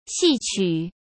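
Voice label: "戏曲" Mandarin Chinese; chinese flashcards; hsk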